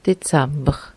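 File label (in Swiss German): "Dezamber", Alsatian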